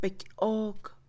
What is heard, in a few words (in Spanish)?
Mixe, Mujer; avaro; Posesión; INALI